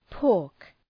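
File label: "pork", English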